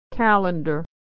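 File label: "calendar", American English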